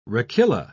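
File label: "rachilla", British English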